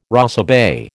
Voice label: "rosebay", American